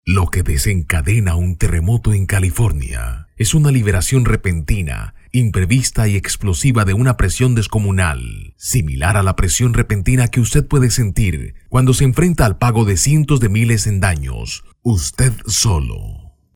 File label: Serious Informative Spanish LA